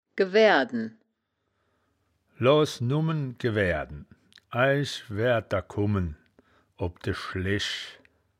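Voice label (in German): "gewaeaerden", wittlicher woerterbuch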